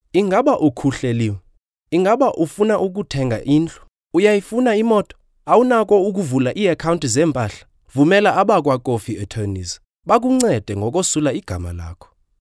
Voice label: Xhosa male